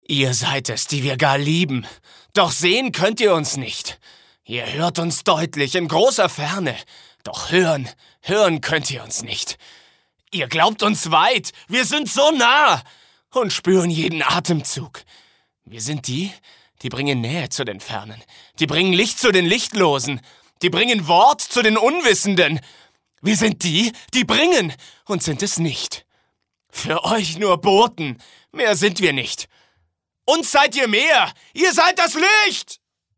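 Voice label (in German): aggressiv, Sprecher, Männer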